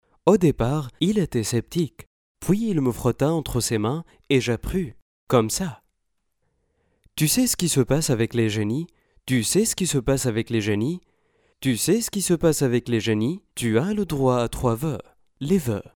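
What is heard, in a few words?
French Tunisian, male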